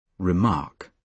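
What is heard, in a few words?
"remark", British English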